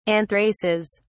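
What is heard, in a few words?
"anthraces", British English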